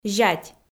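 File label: "зять", Komi-Zyrian, female; audio dict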